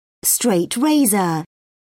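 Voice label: "straight razor", British English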